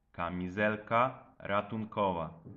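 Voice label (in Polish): "kamizelka ratunkowa", Polish; multimedia glossaries; transport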